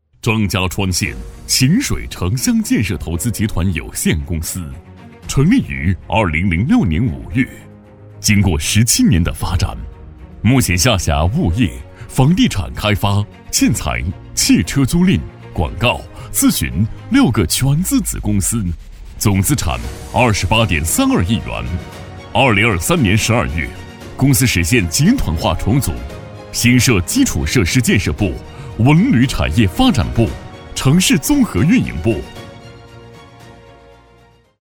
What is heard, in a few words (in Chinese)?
男 宣传片 大气洪亮浑厚; 大气浑厚